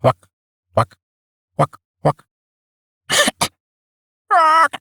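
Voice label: Barks Bird, English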